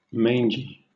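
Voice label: "mangy", British English; pronunciations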